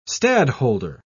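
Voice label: "stadholder", British English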